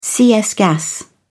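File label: "cs gas", British English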